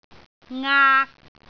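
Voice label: "aak3", Cantonese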